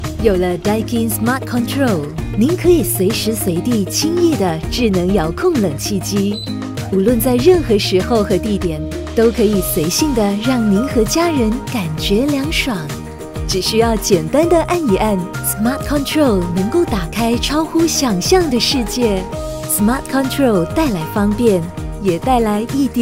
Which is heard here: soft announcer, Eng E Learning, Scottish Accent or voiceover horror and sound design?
soft announcer